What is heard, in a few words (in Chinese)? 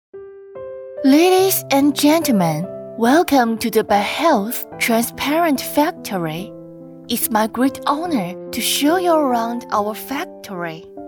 英文讲解 温馨舒缓 女声 英文 女